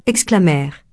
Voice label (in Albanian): "exclamer", frengjisht